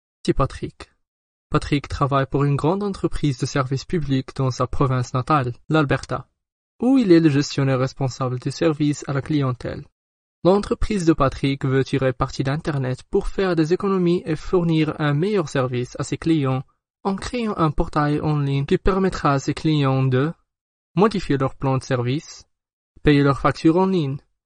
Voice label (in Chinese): 法语男, 外语, 小语种, 自然